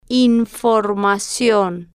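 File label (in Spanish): "informacion", Spanish, female, slow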